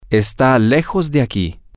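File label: its far from here, Spanish, male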